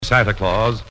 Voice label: santa claus announcer; Voice; xmas